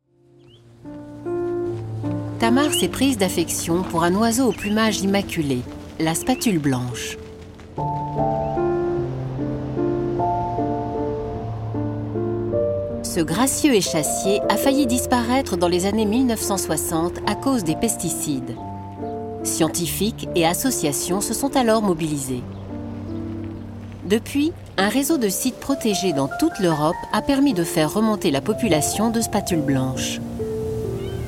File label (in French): Narration Documentaire sur les oiseaux migrateurs; Arte